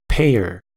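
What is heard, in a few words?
"payer" English, US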